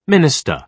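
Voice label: "minister", British English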